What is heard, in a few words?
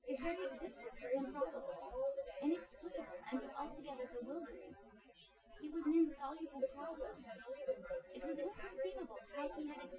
female synth conv 250ms 5cm sim; SiSEC08; SiSEC underdetermined